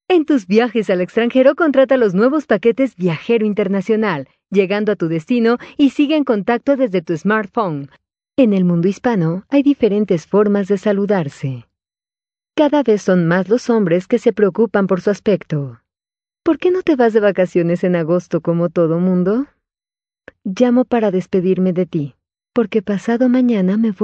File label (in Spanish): Spanish South America, F